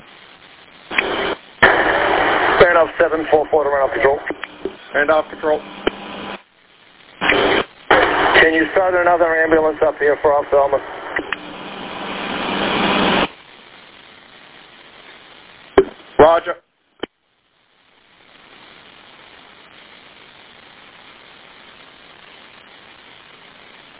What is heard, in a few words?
Police Radio